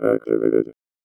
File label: VOICEFX ELCTR 140; Techno2